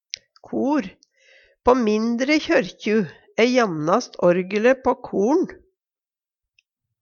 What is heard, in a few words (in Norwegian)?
kor, Numedalsmål, I mindre kyrkju æ jamnast orgele på kor'n.; galleri i kyrkje